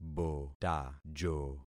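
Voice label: "botajo", concatenated syllables; Affixing experiment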